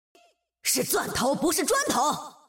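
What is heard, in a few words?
Chinese; 守望先锋2; 终极技能; 主动/敌方释放语音; 简体中文; 是钻头，不是砖头！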